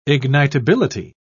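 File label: "ignitability", English, British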